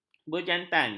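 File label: "berjantan", Malay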